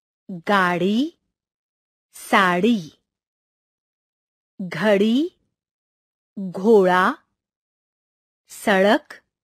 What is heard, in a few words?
hindi sounds test